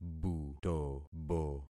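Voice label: "butobo", concatenated syllables; Affixing experiment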